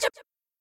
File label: buncha books, girls; cheerReaders; LetsGoRead